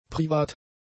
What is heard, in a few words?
"privat", German; adjectives